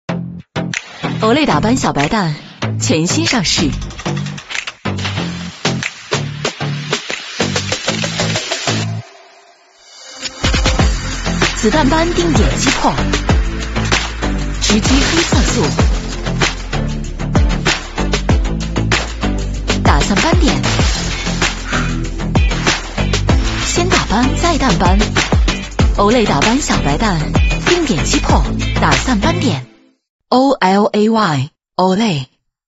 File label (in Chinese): 女 广告 化妆品; OLAY